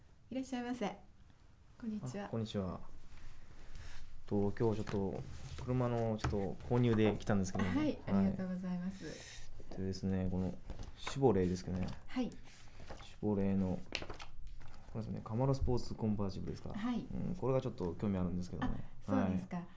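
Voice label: female; RWCP SP96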